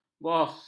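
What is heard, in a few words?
"bos", Malay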